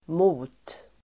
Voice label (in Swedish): "mot", Swedish